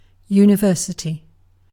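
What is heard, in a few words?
"university", British English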